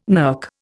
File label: "NERC", Indian